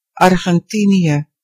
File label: argentina, nl; gcompris-data; geography